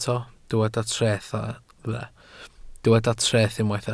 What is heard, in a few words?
"treth", Welsh